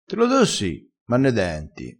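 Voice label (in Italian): "da ne denti", dialettale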